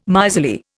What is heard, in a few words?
"miserly", Indian